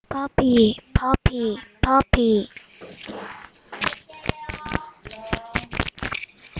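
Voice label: "puppy", spoken word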